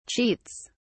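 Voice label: "cheats", British English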